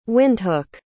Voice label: "windhoek", pronounce